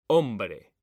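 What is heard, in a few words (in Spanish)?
"hombre", Spanish, male; mekanta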